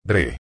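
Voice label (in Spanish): "dre", Spanish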